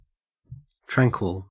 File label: tranquil